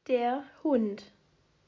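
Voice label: "Der Hund", German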